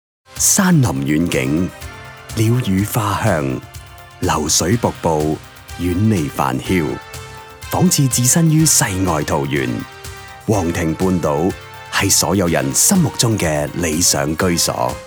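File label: Cantonese, TV commercial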